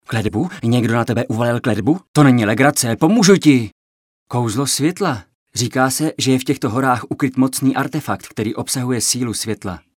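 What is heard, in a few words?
Male Czech drama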